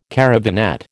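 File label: "caravanette", American English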